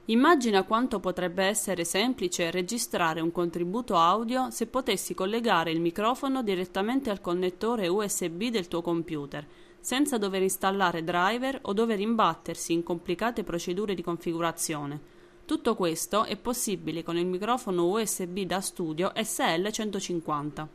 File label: Vocal Booth Pop